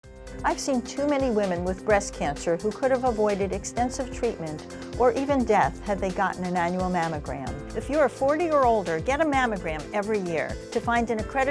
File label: Radio